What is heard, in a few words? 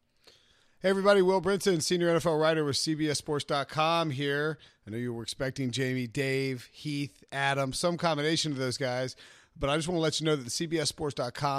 fantasy football announcement